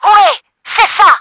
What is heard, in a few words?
THUNDERHEAD DAMAGED, french; Battle Engine Aquila; XBOX